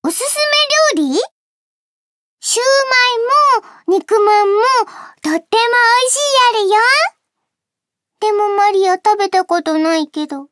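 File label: info, Japanese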